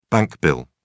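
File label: "bank bill", British English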